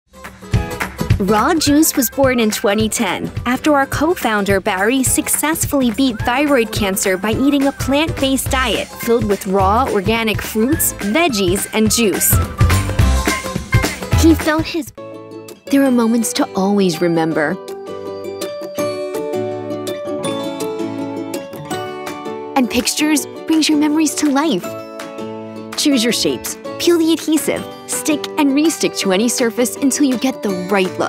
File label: narration compilation